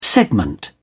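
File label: "segment", British English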